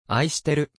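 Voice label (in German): Japanisch, "Ich liebe dich"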